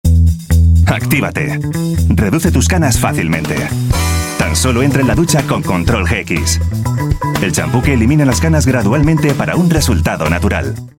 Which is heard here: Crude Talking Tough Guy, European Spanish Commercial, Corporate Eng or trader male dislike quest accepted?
European Spanish Commercial